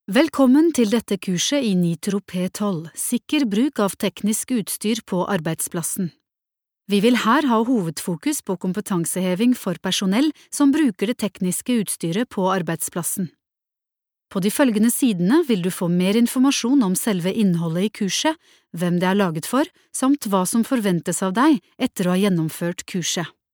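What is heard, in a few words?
Norwegian elearning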